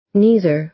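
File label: "neither", American English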